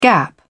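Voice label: "gap", English, British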